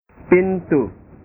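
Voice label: "pintu", Indonesian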